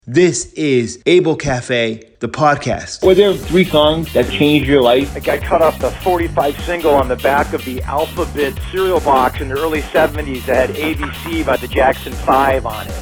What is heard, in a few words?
promo